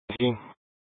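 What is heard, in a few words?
police dispatch radio, scanner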